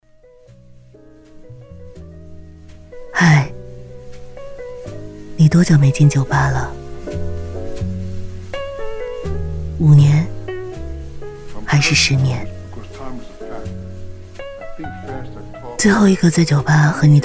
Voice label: 旁白低沉烟嗓

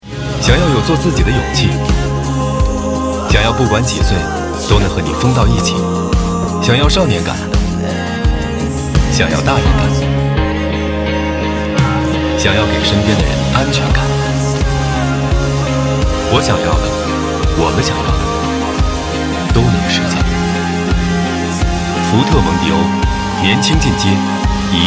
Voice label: 广告 汽车 青春; 福特蒙迪欧

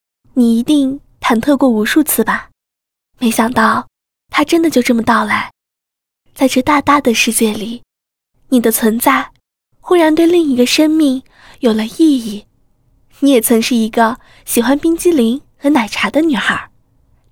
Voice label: 年轻自然

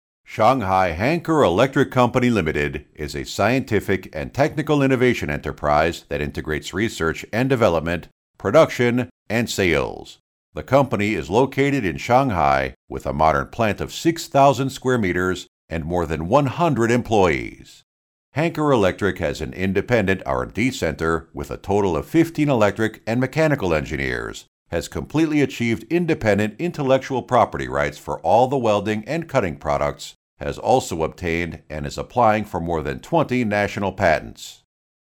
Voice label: 外籍 英语 美式 公司 宣传 大气

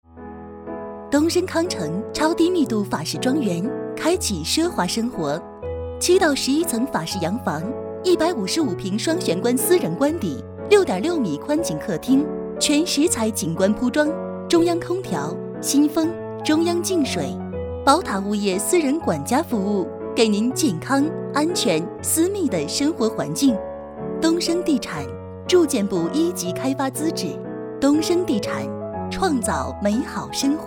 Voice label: 房产宣传广告

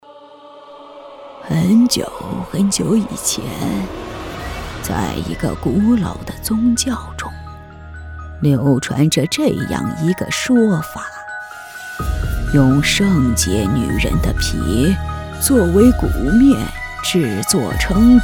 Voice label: 模仿类 老奶奶

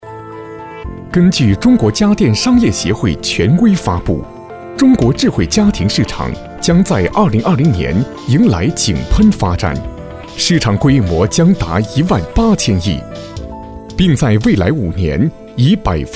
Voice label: 广告智能家居浑厚

